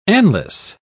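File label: "anlace", British English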